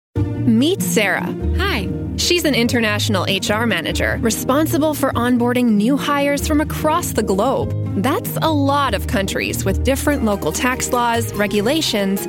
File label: International HR Manager Explainer; Corporate Narration; Corporate, Professional, Trustworthy